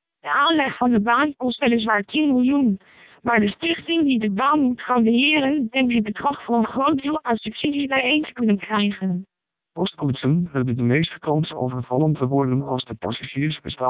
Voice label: dutch short, speechsamples, MELPe 600